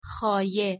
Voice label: "xaye", Persian, F